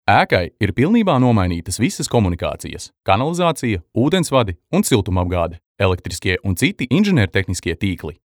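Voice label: Latvian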